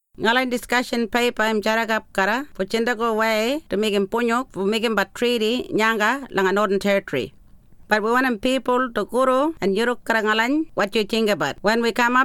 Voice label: Ngarinyman